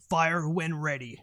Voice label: Fire When Ready, Male; TS Sounds; imvest stress test